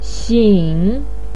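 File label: "xing", Mandarin pinyin, third tone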